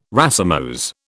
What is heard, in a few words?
"racemose", British